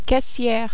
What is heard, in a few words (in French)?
"caissiere", French